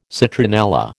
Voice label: "citronella", American